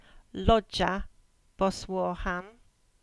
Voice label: Cornish; Boswarthen Lodge; <loja> 'lodge' + <bos> ‘dwelling’ + <Marghan> personal name